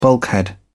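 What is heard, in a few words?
"bulkhead", British English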